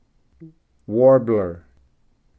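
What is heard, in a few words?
"warbler", English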